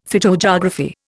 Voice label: "phytogeography", Indian; Dictionary

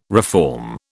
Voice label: "reform", British

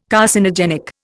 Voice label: "Carcinogenic", Indian

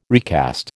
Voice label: "recast", American

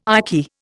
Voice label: "icky", Indian

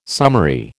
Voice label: "summary", American

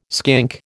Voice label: "skink", American

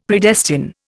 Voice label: "Predestine", Indian; Dictionary

